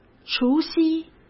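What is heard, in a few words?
"除夕", Mandarin Chinese; chinese-flashcards; hsk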